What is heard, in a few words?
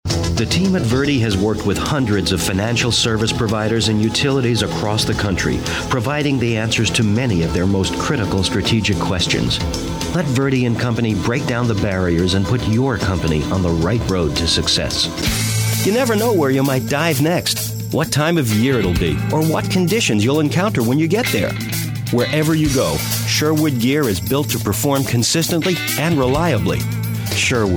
Narration